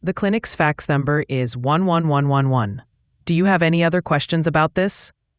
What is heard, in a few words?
question english